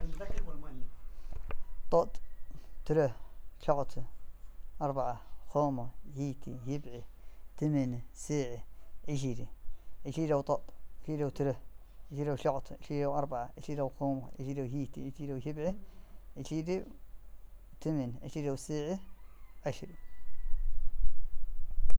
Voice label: western soqotri numbers masculine